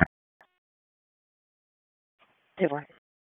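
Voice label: Police District Dispatch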